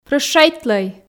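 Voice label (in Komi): "прӧщайтлӧй", Komi, female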